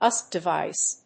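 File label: "USB DEVICE", English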